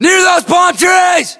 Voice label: US landmark near palmtrees, battlechatter, english; localized english